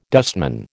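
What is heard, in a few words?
"dustman", American English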